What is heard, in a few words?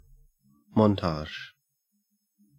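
"montage", Australian English; pronunciations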